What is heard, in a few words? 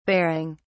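"baring", British English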